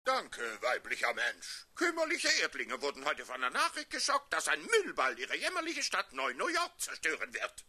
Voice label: German; Morbo